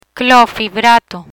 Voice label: "Clofibrato", Spanish; antilipidemic agents